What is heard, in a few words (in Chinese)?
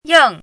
"ying4", pinyin